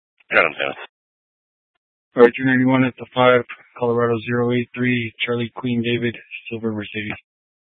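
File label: Troop 2A Dispatch, scanner audio recording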